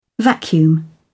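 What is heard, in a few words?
"vacuum", British English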